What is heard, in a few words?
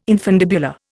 "infundibular", Indian